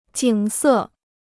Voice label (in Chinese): "景色", Chinese